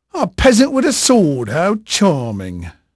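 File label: English male, voice; Pirates Of The Caribbean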